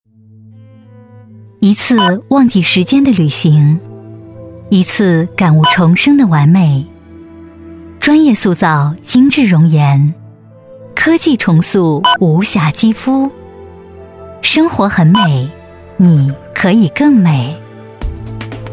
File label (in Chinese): 优雅类-磁性优雅; 容颜